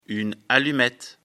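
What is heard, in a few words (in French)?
"allumette", French; afrikalan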